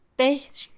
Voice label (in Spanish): Mixe, Mujer; cuerda; Acciones; INALI